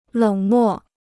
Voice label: "冷漠", Chinese

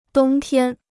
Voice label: "冬天", Chinese

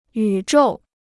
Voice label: "宇宙", Chinese

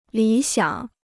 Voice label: "理想", Chinese

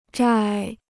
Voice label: "债", Chinese

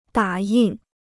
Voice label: "打印", Chinese

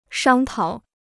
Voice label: "商讨", Chinese

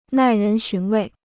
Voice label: "耐人寻味", Chinese

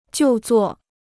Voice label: "就座", Chinese

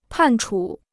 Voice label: "判处", Chinese

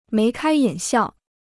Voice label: "眉开眼笑", Chinese

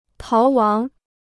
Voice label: "逃亡", Chinese

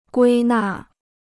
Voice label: "归纳", Chinese

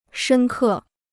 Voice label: "深刻", Chinese

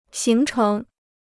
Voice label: "形成", Chinese

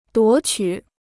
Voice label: "夺取", Chinese

